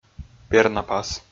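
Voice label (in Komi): "пернапас", Komi, male; audio dict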